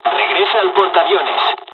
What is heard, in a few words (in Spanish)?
fly, dialogs spanish; War Thunder